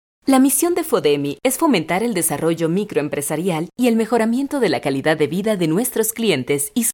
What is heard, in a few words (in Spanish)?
Locucion